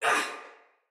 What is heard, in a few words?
Block Soldier Female; Battle; Barks; Dialog